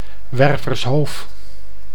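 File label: "Werfershoof", Dutch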